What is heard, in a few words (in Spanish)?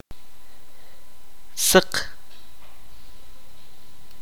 "blanco", Kaqchikel